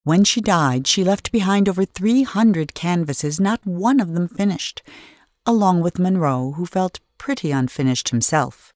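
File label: narration voice over; the unfinished swan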